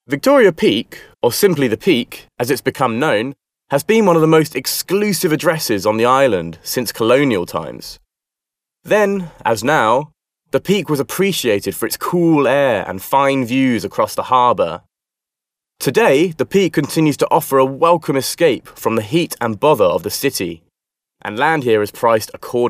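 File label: British English male corporate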